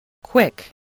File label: "quick", english